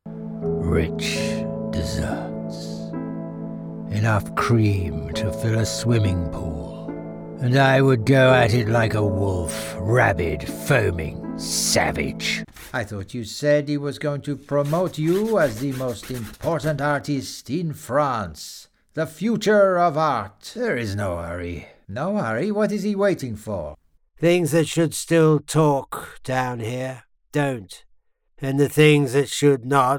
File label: Characters Reel